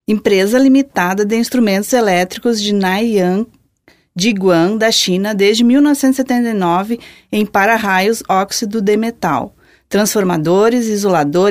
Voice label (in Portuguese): portu girl, portuguese